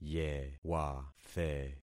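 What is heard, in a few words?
"yewathe", concatenated syllables; Affixing experiment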